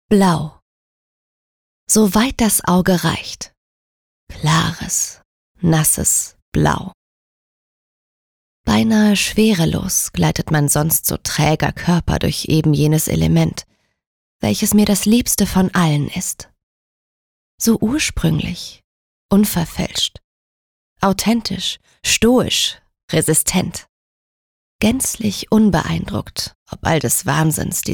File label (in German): Sanft